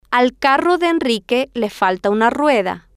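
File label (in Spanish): al carro de le falta una rueda, Spanish, female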